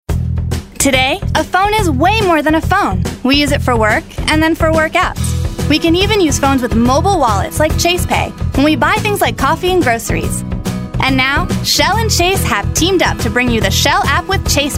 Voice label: upbeat